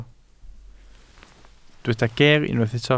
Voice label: "geer", Welsh

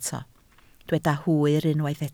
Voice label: "hwyr" Welsh

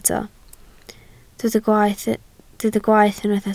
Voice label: "gwaeth" Welsh

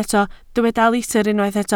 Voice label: "litr", Welsh

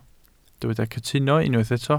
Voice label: "cytuno", Welsh

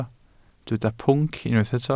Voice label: "pwnc", Welsh

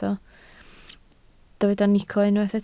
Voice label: "nico", Welsh

